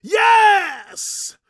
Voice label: PREACHIN, Gospel PREACHER